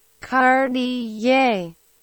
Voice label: Cartier Pronunciation